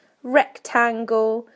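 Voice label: "rectangle", British English; PictureDictionary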